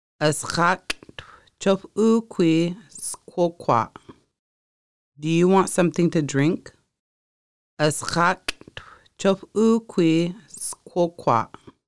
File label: do you want something to drink, conversational Lushootseed